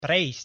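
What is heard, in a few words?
"prejsť", Slovak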